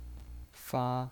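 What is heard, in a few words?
"fa" Mandarin Chinese, first tone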